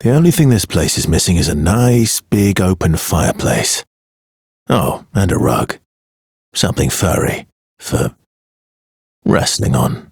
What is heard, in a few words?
Ambient Barks, en; Warframe; Mall Romance; Arthur